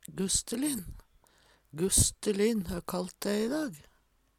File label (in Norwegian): gusstelin, Numedalsmål; Gusstelin, hø kallt dæ va.